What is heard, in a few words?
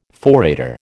"forrader", American